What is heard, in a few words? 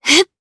Casting, jp; DarkFrey; kingsraid